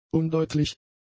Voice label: "undeutlich", German; adjectives

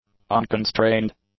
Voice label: "unconstrained", English; adjectives

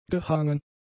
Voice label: "gehangen", German; adjectives

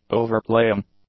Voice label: "overplaying", English; adjectives

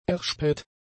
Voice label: "erspäht", German; adjectives

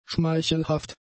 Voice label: "schmeichelhaft", German; adjectives

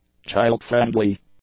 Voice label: "child-friendly", English; adjectives

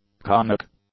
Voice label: "conic", English; adjectives

